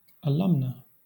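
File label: "alumna", English; pronunciations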